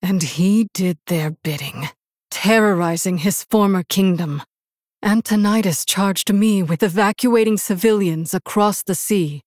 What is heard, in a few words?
Human Female; Jaina